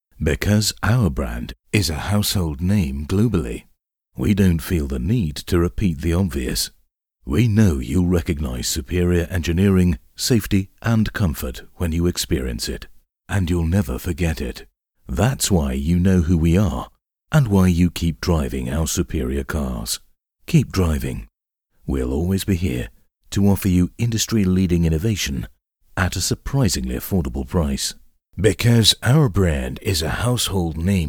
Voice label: UK and USA commercial